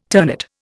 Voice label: "ternate", Indian

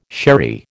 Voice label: "sherry", American

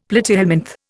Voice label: "platyhelminth", Indian; Dictionary

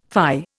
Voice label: "fie", Indian

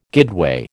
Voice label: "guideway", American

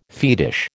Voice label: "fetish", American

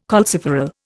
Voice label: "calciferol", Indian

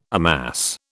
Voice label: "amass", British

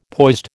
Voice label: "poised", American

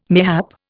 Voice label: "mayhap", Indian

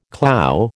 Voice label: "clou", American

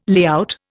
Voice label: "layout", Indian

